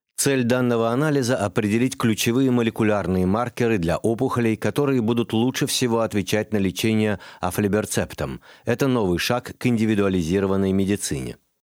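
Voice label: Russian, male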